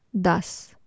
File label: "das" ten, Urdu